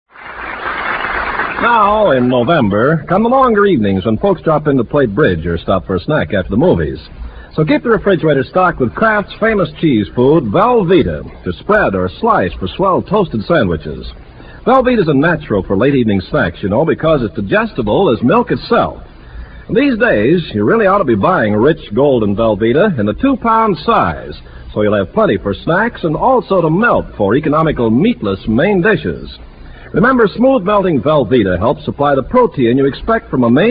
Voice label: Vintage Commercials; Velveeta